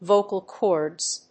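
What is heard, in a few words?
"vocal cords", English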